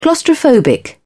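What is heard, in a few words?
"claustrophobic", English, uk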